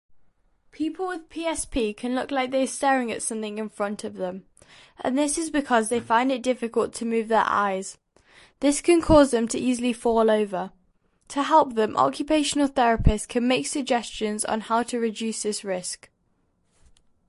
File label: voice note